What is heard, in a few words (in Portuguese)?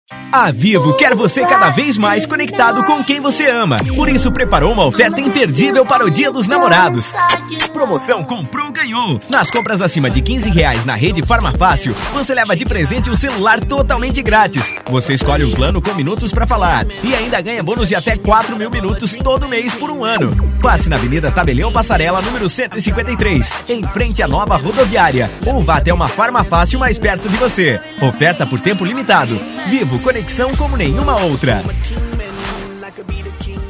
spots; vivo conexao como nenhuma outra